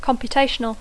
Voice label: "computational", English; Dacco

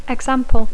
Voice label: "example", English

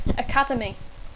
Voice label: "academy", English; Dacco

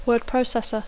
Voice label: "word processor", English; Dacco

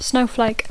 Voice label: "snowflake", English; Dacco

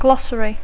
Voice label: "glossary", English; Dacco

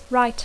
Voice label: "write", English

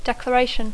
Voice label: "declaration", English; Dacco

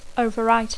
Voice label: "overwrite", English; Dacco

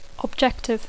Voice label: "objective", English; Dacco

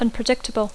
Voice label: "unpredictable", English; Dacco

